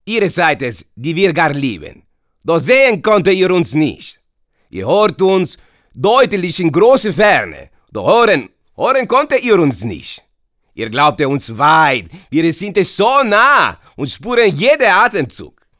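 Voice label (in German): Akzent Italiener, Sprecher, Männer; Dialekt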